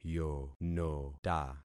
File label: "yonota", concat syllables; Affixing experiment